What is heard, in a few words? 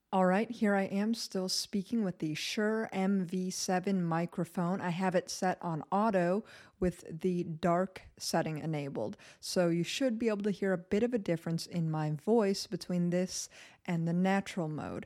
Shure MV7 dark microphone